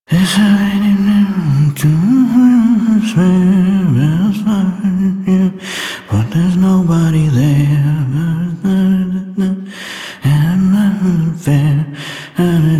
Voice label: Citizen Male, en, Mall; Citizens; Warframe